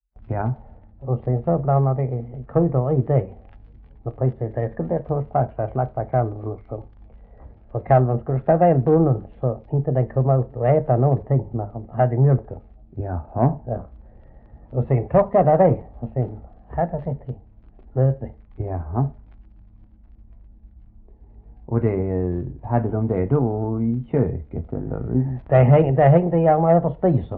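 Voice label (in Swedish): Oderljunga Skåne, Svenska sagor dialekter; Folkminnen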